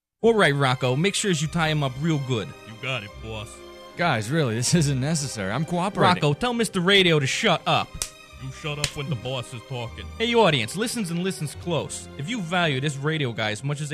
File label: laughing ogre spot